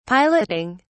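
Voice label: "piloting", British English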